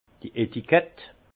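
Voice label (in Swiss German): "etikettel", Alsace Haut Rhin; vin; étiquette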